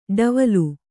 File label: "ಟವಲು", Kannada